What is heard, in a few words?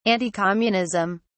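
"anticommunism", British English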